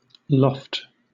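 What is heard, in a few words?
"loft", British English; pronunciations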